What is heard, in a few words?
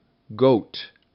"goat", Minimal Pairs; Rhymes ap